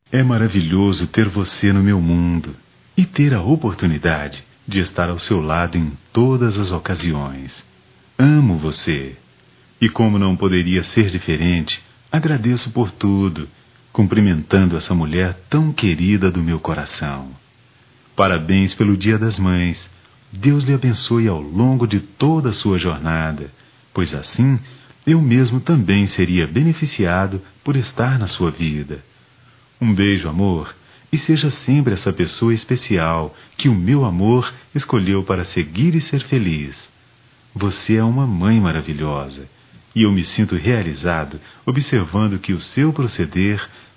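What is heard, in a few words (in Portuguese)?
Mãe infantil, masculino; Dia das Maes; Telemensagens 2017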